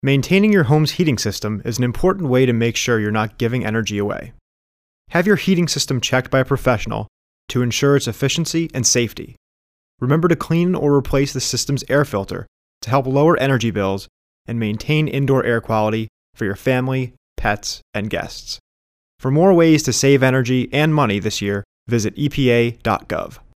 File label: psa; heating system